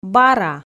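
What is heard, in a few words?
"бара", Komi, female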